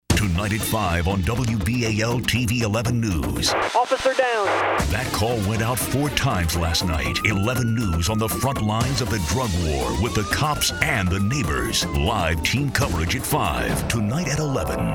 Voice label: radio topical, radio imaging